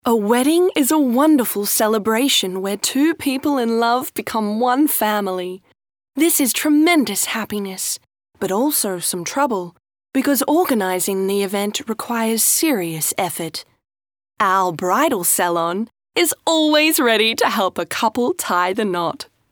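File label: English female Inspirational, vo examples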